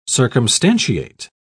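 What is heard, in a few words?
"circumstantiate", British English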